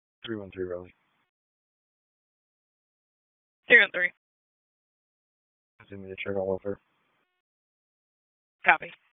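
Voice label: Raleigh Police Northeast District Dispatch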